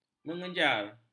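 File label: "mengejar", Malay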